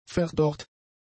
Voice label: "verdorrt", German; adjectives

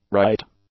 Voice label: "right", English; adjectives

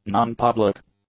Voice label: "non-public", english; adjectives

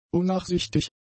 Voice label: "unnachsichtig", German; adjectives

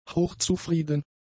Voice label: "hochzufrieden", German; adjectives

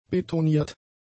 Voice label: "betoniert", German; adjectives

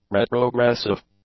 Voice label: "retrogressive", English; adjectives

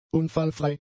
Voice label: "unfallfrei", German; adjectives

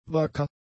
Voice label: "wacker", German; adjectives

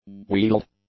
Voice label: "wheeled", english; adjectives

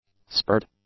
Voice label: "spurred", English; adjectives

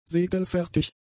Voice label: "segelfertig", German; adjectives

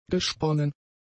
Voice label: "gesponnen", German; adjectives

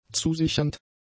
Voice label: "zusichernd", German; adjectives

